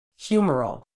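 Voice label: "humoral", British English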